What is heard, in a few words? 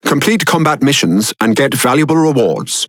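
Speech Tutorial, British English; World Of Warships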